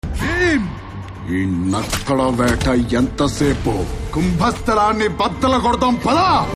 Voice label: Dialogue Ringtone; RRR Trailer; RamCharan